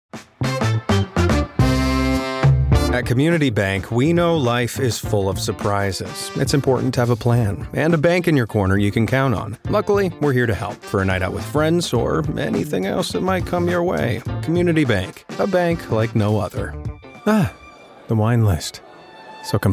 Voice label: Commercial, fade in

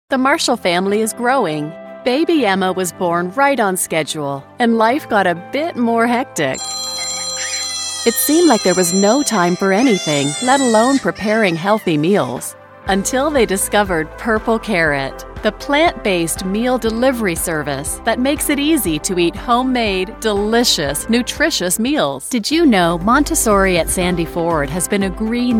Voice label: explainer

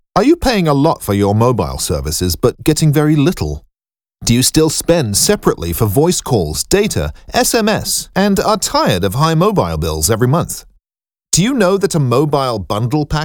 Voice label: British

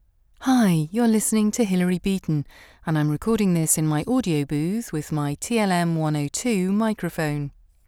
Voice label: studio

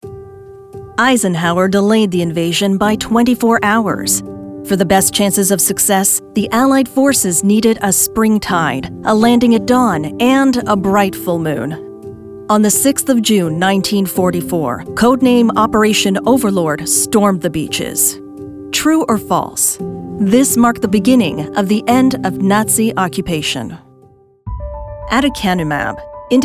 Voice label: eLearning

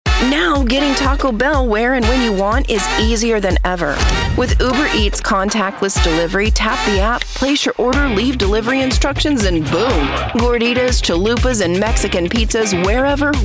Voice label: Commercial Demo

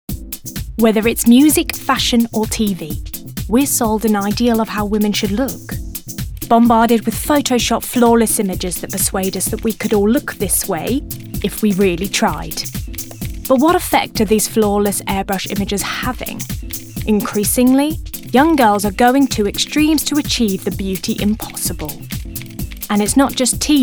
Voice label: Narrative

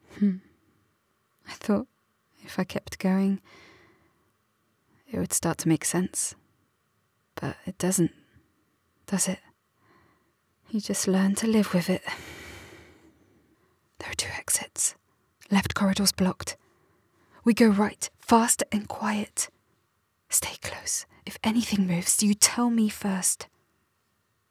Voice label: Video game English

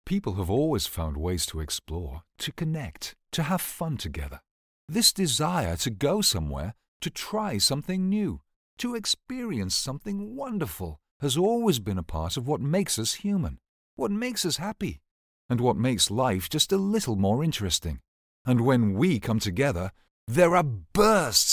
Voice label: Home Studio

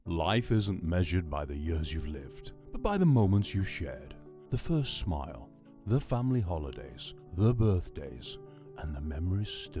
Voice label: Over 50 Ad

